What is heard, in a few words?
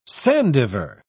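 "sandiver", British English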